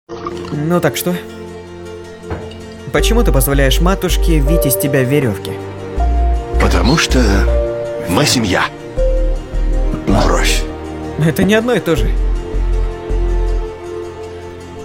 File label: rus